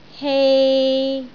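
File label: "hei3", Cantonese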